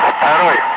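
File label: Russian calm; Ka-50; Wingman; DCS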